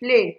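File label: "play", Nigerian Pidgin, female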